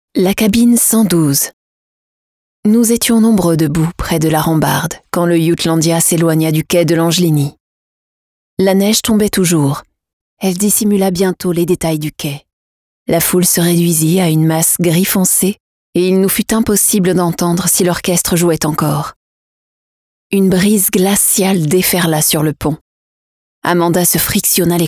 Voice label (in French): Litterature historique, narration, voix off